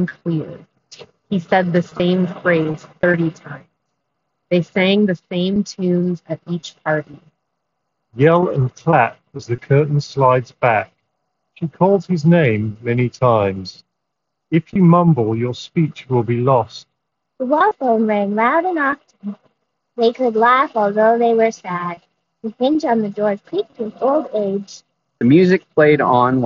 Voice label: Street microphone sample; Skullcandy Mod XT

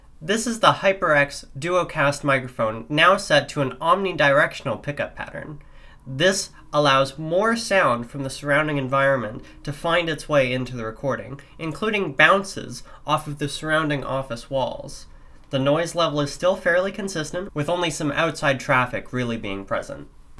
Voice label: Omnidirecional; HyperX DuoCast

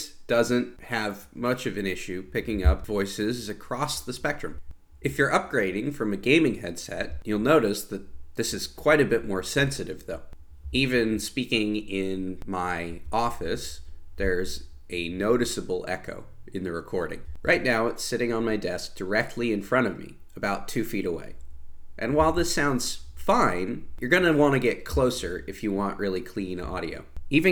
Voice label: HyperX Solocast sample

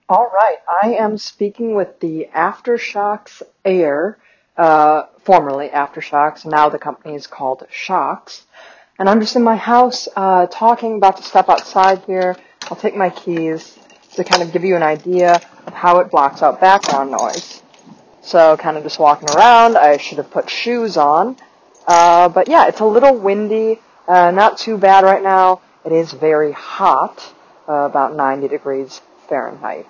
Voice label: AfterShokz Air microphone nonstandardized

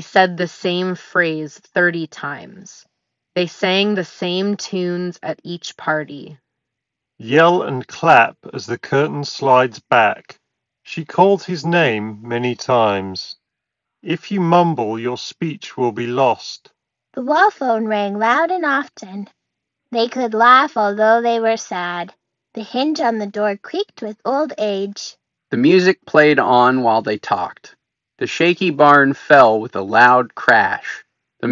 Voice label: Ideal microphone sample; Creative Aurvana Ace 2

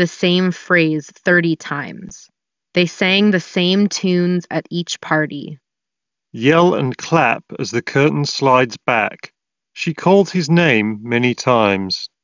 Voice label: Ideal microphone sample; Sennheiser Momentum 4 Wireless

